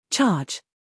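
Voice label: "humor", British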